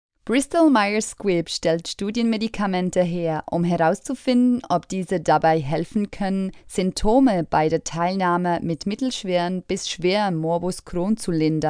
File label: GERMAN